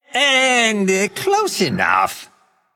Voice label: Male Undead Play

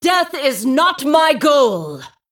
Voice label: Female Dragon Attack

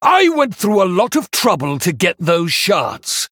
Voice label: Male Human

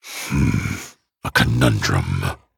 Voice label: Male Dragon Thinking

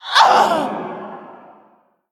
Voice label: Female Air Elemental Death

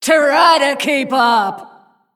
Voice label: Female Shivarra Attack

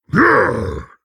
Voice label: Male Orc

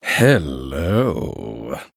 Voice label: Male Blood Elf Attack

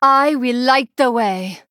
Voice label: Female Draenei Start

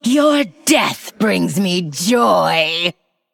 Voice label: Female Forsaken Attack

